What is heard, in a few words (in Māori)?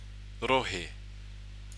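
"rohe", Māori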